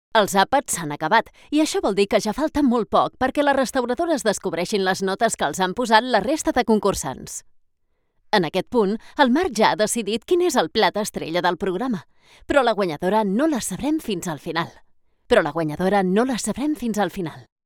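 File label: catalan tv shows, female; professional Spanish and Catalan voice actor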